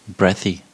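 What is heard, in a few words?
"breathy", US English; pronunciations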